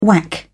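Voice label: "whack", British English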